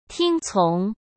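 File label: "听从", Mandarin Chinese; hsk; chinese-flashcards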